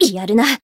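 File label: Voice damaged, Japanese; menesia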